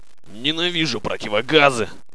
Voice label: monologue, soldier; svencoop; paranoia